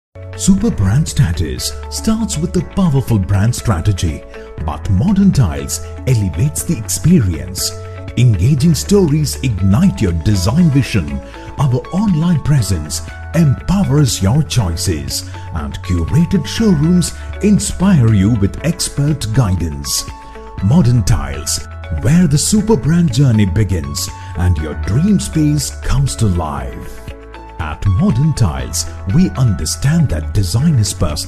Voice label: ENGLISH ADVERTISEMENT, Neutral male voice

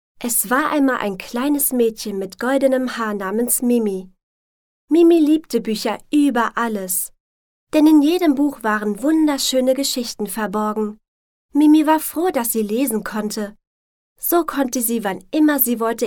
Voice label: german

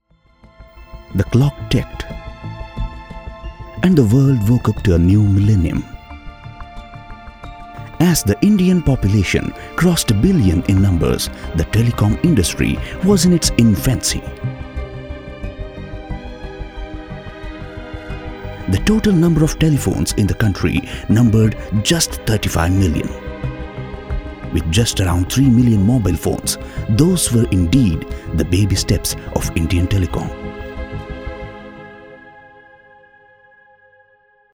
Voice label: Documentary English, Neutral English male

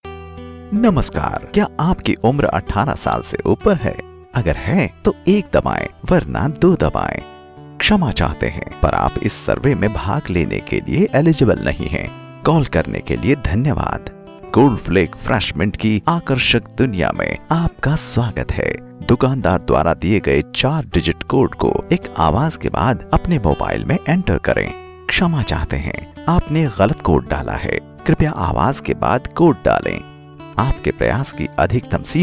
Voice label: IVR hindi

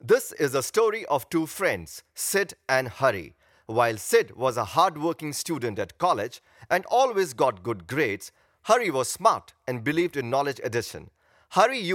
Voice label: promo eng

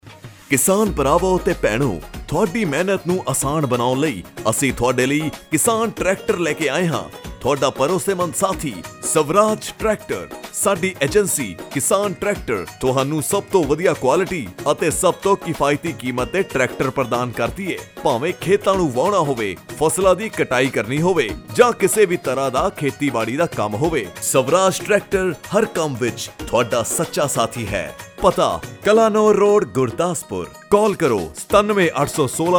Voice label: Punjabi

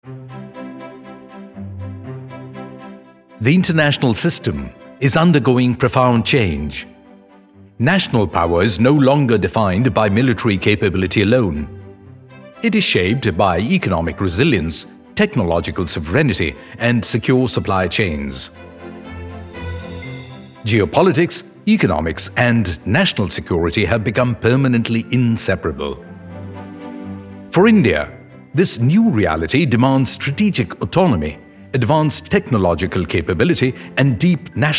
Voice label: ENGLISH DOCUMENTARY

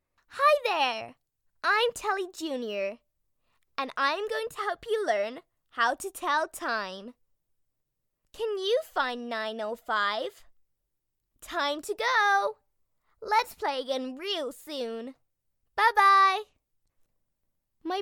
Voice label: Educational - American Accent

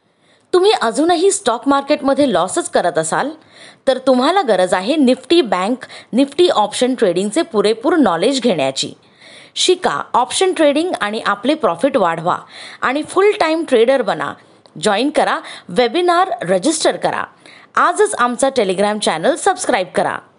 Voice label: Marathi ad

